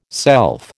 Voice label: "self", American